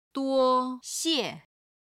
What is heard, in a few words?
"duo1 xie4", Chinese; ありがとう感謝の挨拶表現